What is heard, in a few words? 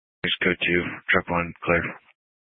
Grand Junction Fire Department Dispatch